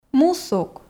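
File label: "мусук", Komi, female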